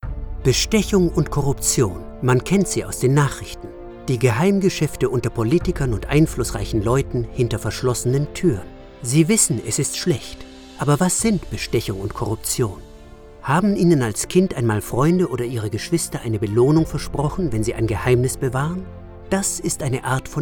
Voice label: corporate, German, male